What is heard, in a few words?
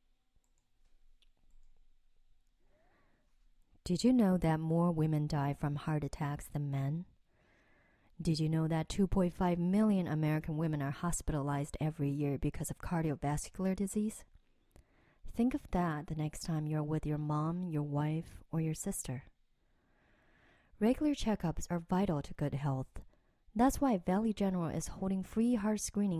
English; ValleyGeneral